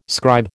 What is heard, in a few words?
"scribe", American